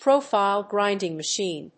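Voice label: "profile grinding machine", English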